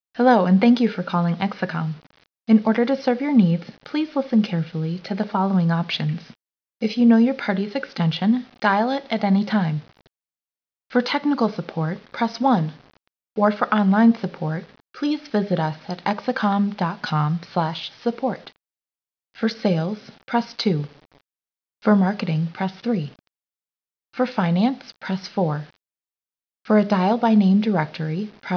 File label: IVR Intro